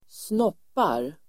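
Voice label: "snoppar", Swedish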